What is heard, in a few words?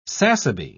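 "sassaby", British English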